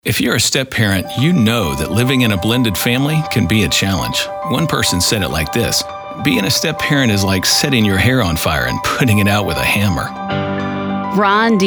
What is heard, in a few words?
Radio ad generic